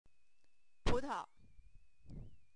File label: "putao", Chinese